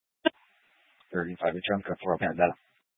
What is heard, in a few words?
Police Dispatch, scanner; Police 1 Dispatch